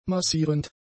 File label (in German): "massierend", German; adjectives